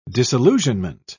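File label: "disillusionment", British English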